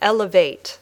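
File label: "elevate", English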